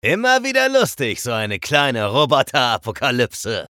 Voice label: chatter neutral; eisen

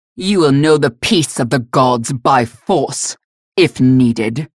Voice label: menu chatter; galena

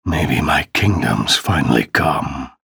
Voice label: chatter negative; ranger; respawn

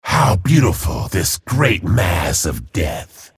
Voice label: chatter positive; frag

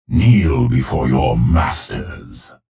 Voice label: chatter positive; clutch; frag

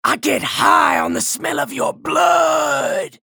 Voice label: chatter positive; anarki; frag

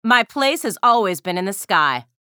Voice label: menu chatter; athena

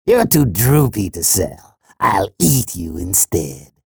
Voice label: chatter positive; sorlag; frag

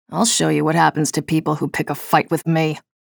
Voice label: chatter neutral; nyx

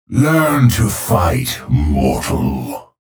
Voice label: chatter neutral; clutch

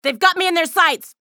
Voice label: chatter negative; athena; respawn

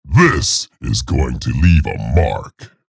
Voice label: vo chatter negative; scalebearer; respawn

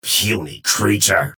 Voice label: vo chatter positive; strogg infiltrator; frag

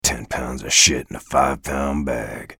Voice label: chatter positive; bjblazkowicz; frag

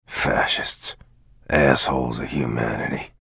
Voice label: chatter neutral; bj blazkowicz

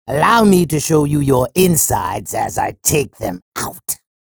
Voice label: chatter neutral; sorlag

